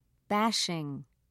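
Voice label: "bashing", British English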